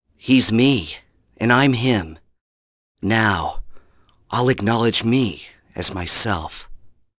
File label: vox, en; kingsraid